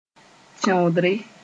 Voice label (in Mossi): "tẽodre", Moore